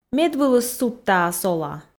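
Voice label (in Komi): медвылыс судтаас ола, Komi, female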